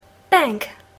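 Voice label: "bank", English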